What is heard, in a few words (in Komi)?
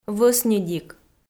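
"вӧсньыдик", Komi-Zyrian, female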